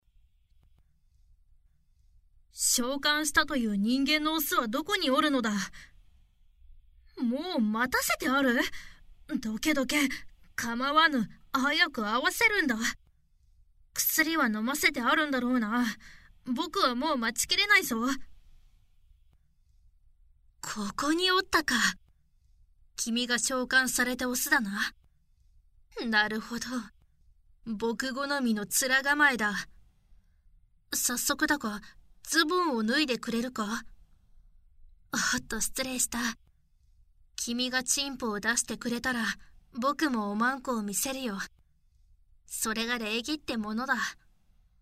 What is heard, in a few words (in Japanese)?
プロローグ・耳かき・耳舐め SEあり, ASMR